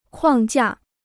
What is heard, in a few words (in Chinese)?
"框架", Chinese